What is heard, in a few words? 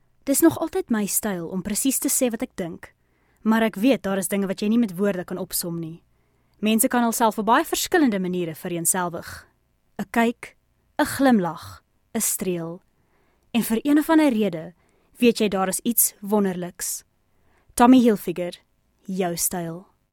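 Afrikaans commercial; GoLocalise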